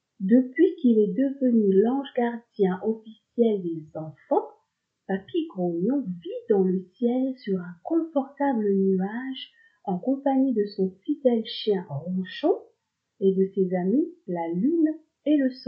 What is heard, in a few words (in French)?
VOIX OFF VIEUX